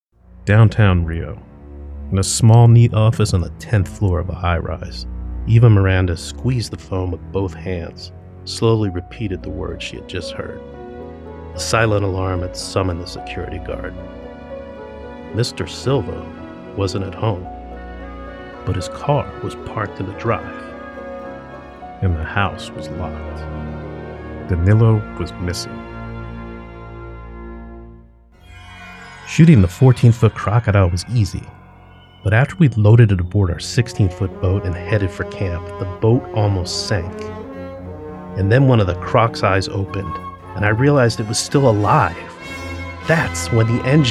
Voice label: NARRATION VO